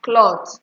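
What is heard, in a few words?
"cloth", Nigerian Pidgin, female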